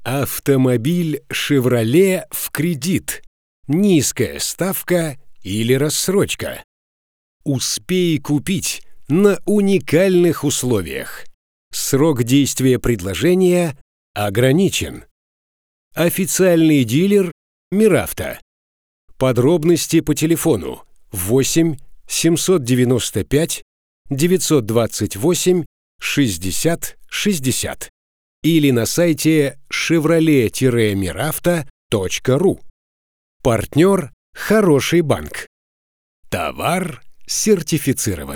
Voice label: Taimer M voice